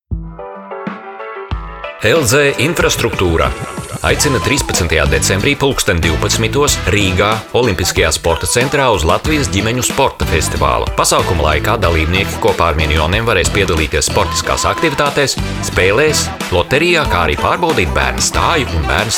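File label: Latvian male voice; latviu; vyras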